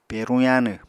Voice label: "peruñ yaanw", Apatani